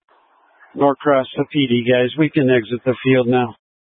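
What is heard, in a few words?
Incident Tac 3, Scanner audio recording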